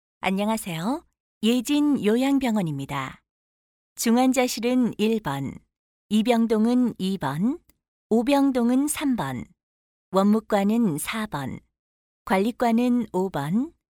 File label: korean f